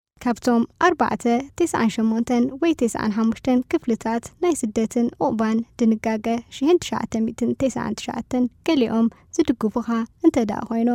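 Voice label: Tigrinya Female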